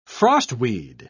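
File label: "frostweed", British English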